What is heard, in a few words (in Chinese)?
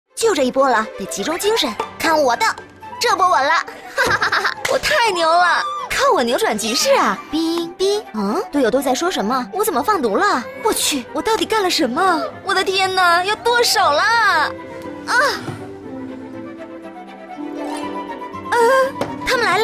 女 广告 少女感; 大话西游